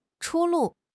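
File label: "出路", Mandarin Chinese; HSK; HSK6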